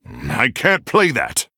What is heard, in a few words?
Male Human ERROR PLAY